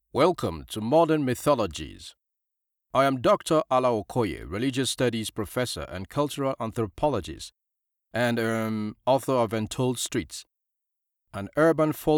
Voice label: english african podcasts